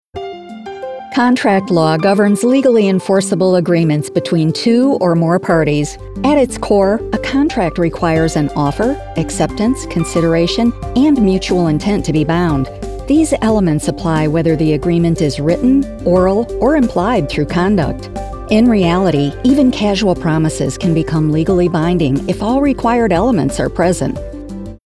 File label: Legal Narr E-LEARNING